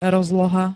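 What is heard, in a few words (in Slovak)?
"rozloha", Slovak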